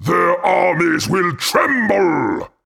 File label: voice, taunt; kosmyr